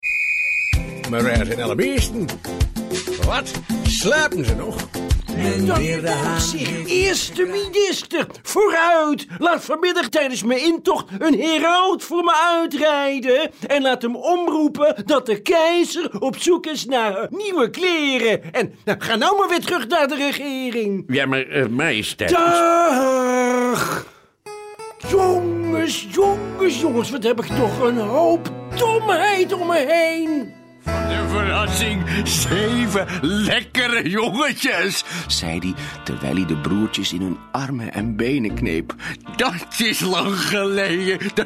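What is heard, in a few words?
dutch male commercial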